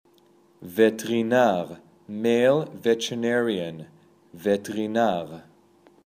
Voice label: Male Veterinarian